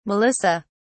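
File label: "melissa", British English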